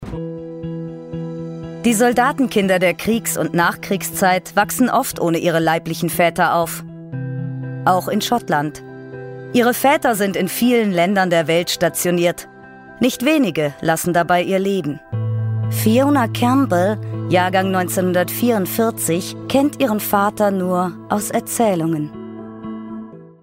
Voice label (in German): doku; Sprecher in Hamburg; Muttersprache Deutsch; royal scots